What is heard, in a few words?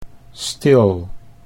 "stil", Dutch